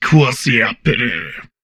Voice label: humanoid portrait male; Stellaris; humanoids